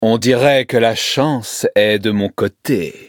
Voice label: victory, fr; Cookie Run